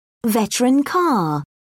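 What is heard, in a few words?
"veteran car", British English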